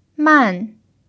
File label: "man4", chinese